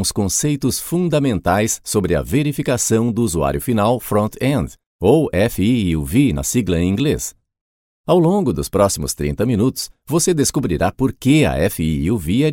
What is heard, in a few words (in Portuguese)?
treinamento e-learning